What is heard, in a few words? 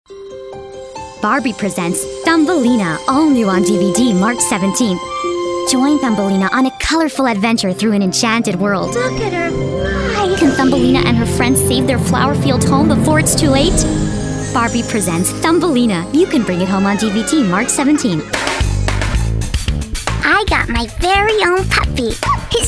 Comm, real people female